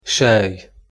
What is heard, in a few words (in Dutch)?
"شاي", Arabisch; thee-in-andere-talen